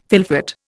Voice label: "fylfot", Indian